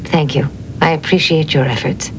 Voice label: thank you efforts, women; trek; voyager